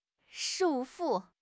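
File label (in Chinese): "束缚", Chinese; HSK6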